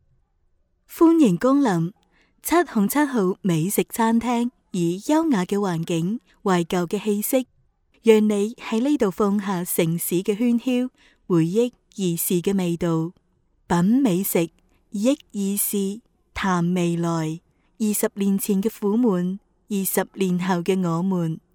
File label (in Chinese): 女 粤语广告 美食餐厅